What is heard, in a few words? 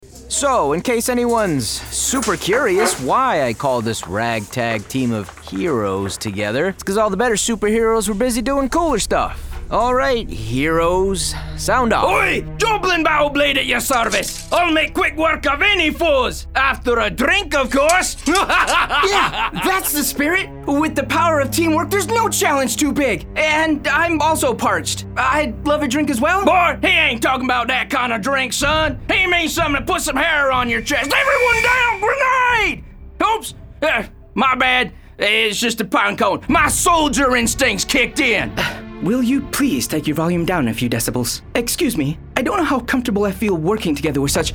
Character, Scots-Puerto Rican Voice Actor